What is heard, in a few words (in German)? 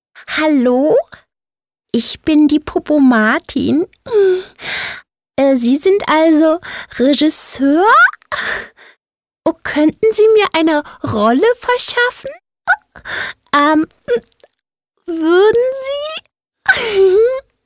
Schrill